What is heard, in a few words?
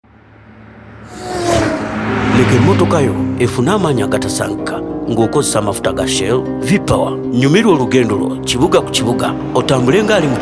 Luganda Radio